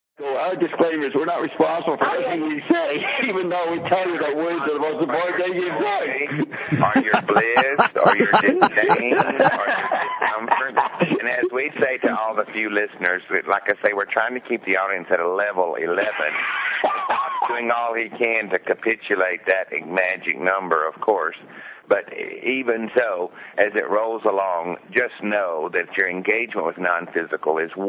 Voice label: rant